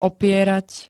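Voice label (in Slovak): "opierať", Slovak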